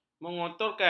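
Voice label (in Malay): "mengotorkan", Malay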